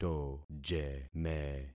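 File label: "tojeme", concatenated syllables; Affixing experiment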